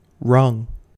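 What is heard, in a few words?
"rung", American English; pronunciations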